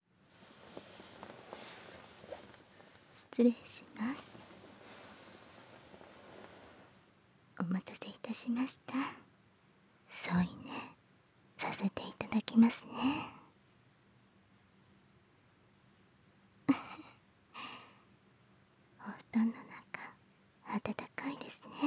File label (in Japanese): 添い寝; 極上の癒し～夢見月の湯宿一泊二日専属仲居付きスイートプラン; 夢見月の湯宿本館